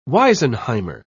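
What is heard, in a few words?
"wisenheimer", English, British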